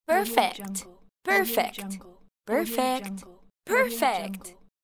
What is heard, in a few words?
teenage girl voice; teenage girl voice perfect pack